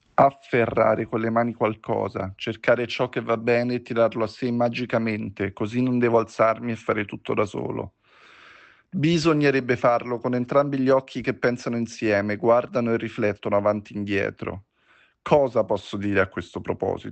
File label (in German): Italienisch